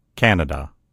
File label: "canada", en_US; gcompris; geography